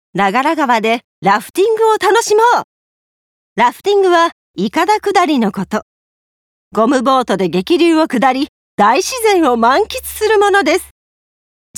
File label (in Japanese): レジャーCM